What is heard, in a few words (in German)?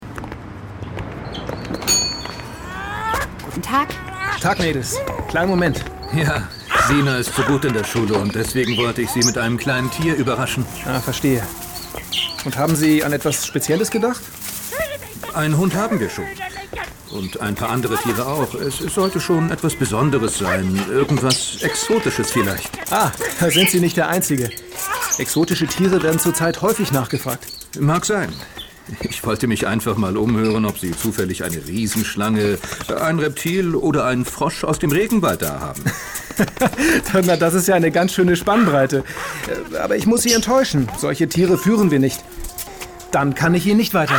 Hoerspiel; ausrufezeichen exot